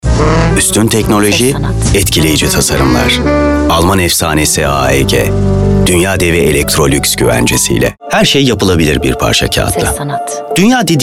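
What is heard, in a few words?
Turkish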